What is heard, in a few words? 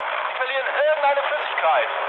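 German tank damaged mood med, speech; War Thunder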